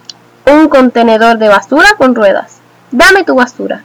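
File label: wheelie bin, Spanish; household; tuxpaint-stamps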